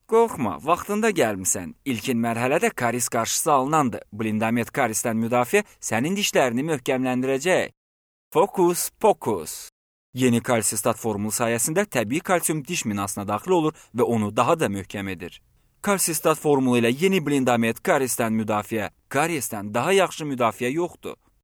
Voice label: Azeri

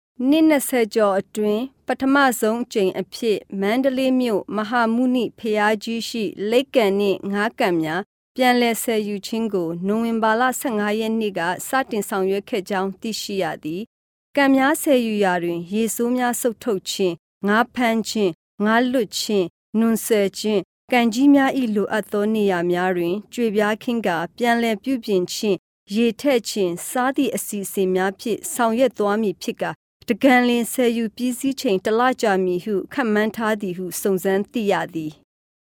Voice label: Khmer

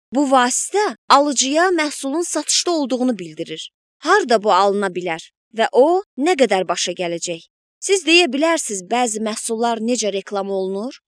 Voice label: Azeri

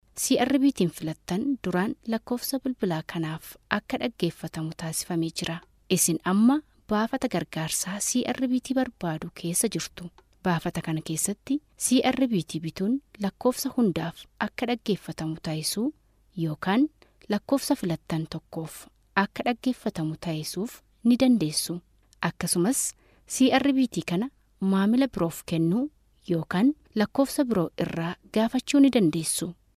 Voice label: Oromo

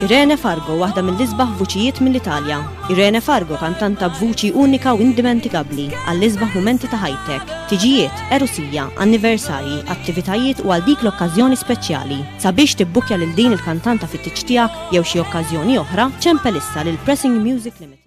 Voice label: MALTESE

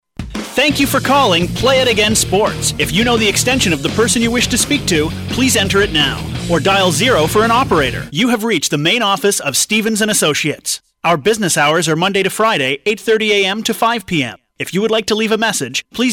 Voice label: US telephony

